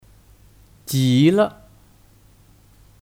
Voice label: "极了", Jíle; Kata keterangan; Sangat (Banget)

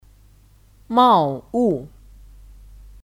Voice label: "茂物", Mào wù; Kata benda; Bogor

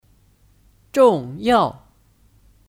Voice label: "重要", Zhòngyào

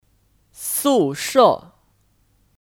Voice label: "宿舍", Sùshè; (Kata benda): Asrama/kos

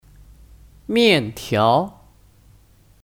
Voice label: "面条", Miàntiáo

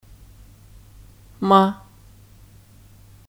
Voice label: "吗", Ma; Kata tanya; Apakah (yes/no question)

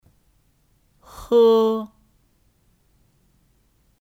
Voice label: "喝", Hē

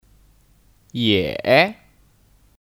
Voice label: "也", Yě; Kata keterangan; Juga

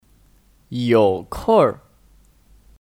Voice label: "有空儿", Yǒu kòngr

